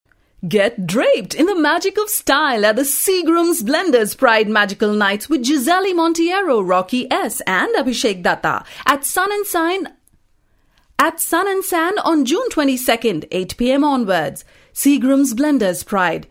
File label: english, female voice